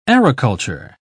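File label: "aeroculture", British English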